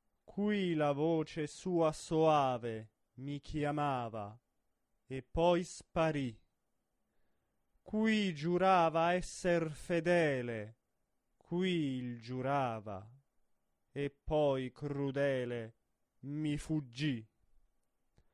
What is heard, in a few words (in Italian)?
espressivo; Bellini; Puritani